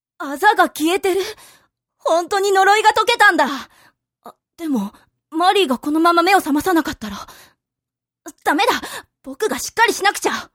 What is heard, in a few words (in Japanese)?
syonen